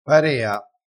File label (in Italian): "parea", crespinese